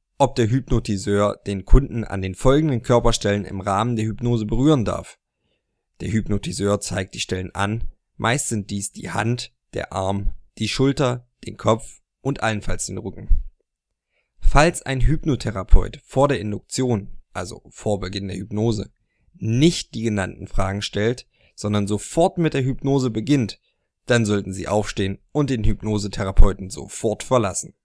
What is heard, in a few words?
GERMAN